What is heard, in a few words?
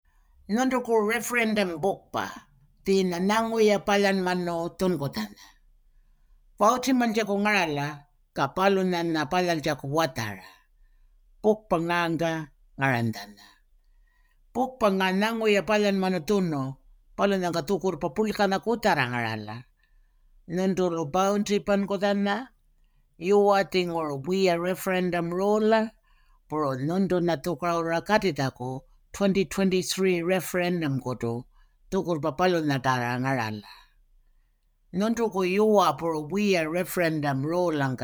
Ngaanyatjarra; Referendum Booklet; AEC